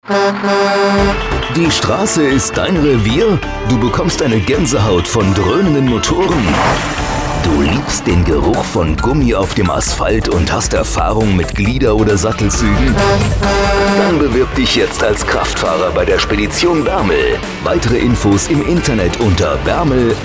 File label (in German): Radiospot